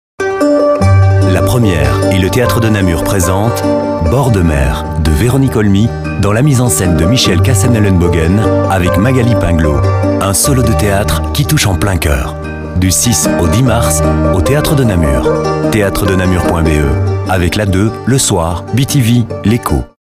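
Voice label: French, male, commercial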